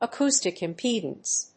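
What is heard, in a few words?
"acoustic impedance", English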